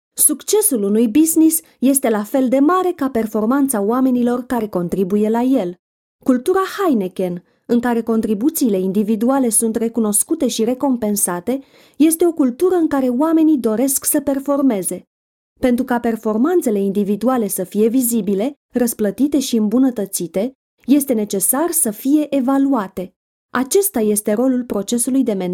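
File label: Romanian, female, e-learning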